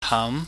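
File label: "ham", Cantonese, mid rising tone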